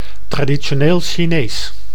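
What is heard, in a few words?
"traditioneel Chinees", Dutch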